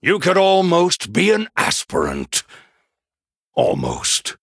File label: Male Human Well Played